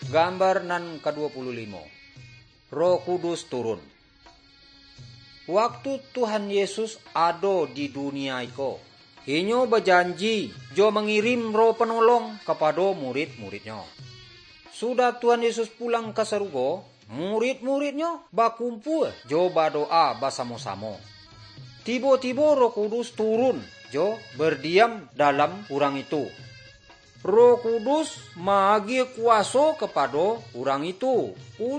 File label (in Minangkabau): good news minangkabau